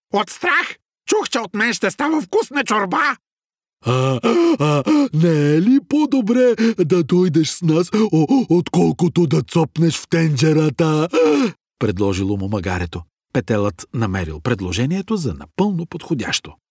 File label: male bulgarian voice over character